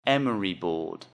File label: "emery board", English; Jewelry and Cosmetics